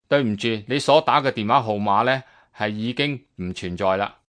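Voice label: Not In Service, Telephone operator, Cantonese, male; Telephone Voice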